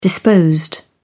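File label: "disposed", British English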